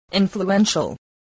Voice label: "influential", spelling voice